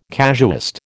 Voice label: "casuist", American